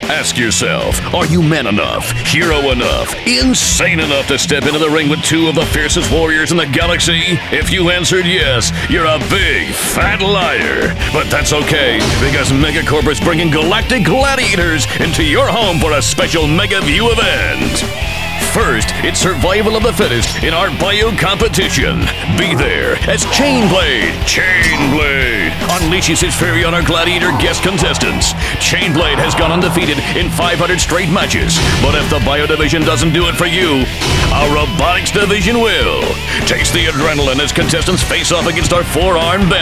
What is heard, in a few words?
Commercial; Galactic Gladiators